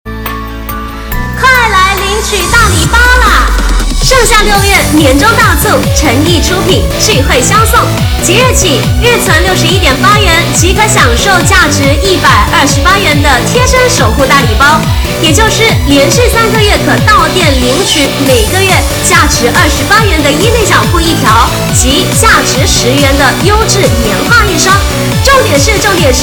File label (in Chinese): 内衣店促销广告配音